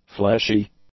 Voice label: "fleshy", english; adjectives